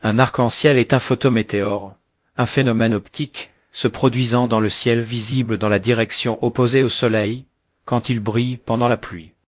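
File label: speaker, American English; piper-voices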